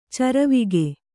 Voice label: "ಚಾರ್ವಾಕ", Kannada

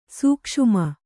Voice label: "ಸೂಕ್ಷುಮ", Kannada

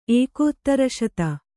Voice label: "ಏಕೋತ್ತರಶತ", Kannada

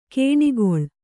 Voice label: "ಕಣೆಗೊಳ್", Kannada

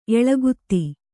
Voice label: "ಏಲಾಗೀತೆ", Kannada

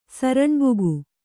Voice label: "ಸರಣ್ಬುಗು", Kannada

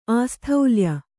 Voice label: "ಆಸ್ಥೌಲ್ಯ", Kannada

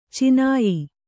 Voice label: "ಚುನಾಯಿ", Kannada

